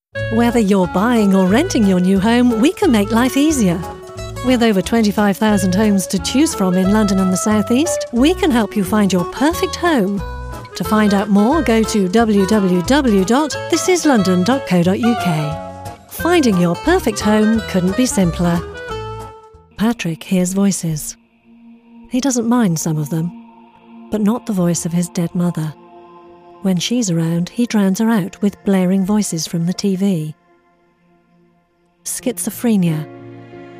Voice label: ads